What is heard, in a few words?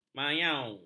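"mayau", Malay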